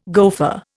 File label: "gofer", Indian